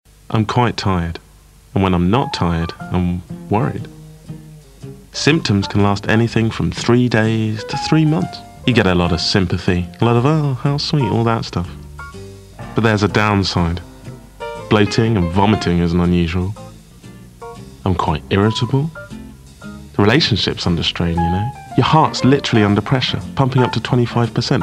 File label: commercial